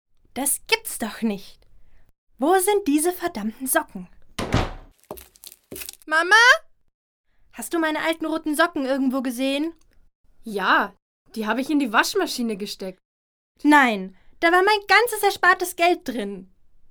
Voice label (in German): Werbung Sparkasse